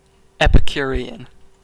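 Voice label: "epicurean", US English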